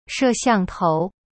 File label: "she4xiang4tou2", Chinese; cyberchinese